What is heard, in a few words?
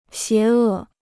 "邪恶", Chinese